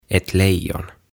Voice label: "Ett lejon" "Leijona"

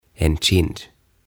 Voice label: "En Kind" "Poski"

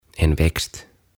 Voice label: "En Växt" "Kasvi"

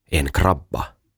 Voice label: "En Krabba" "Rapu"

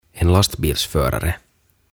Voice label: "En Lastbilsförare" "Kuorma Autonkuljettaja"

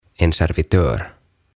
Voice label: "En Servitör" "Tarjoilija"

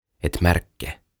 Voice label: "Ett Märke" "Rintanappi"

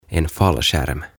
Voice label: "En fallskärm" "Laskuvarjo"

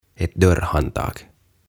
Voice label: "Ett Dörrhantag" "Ovenkahva"

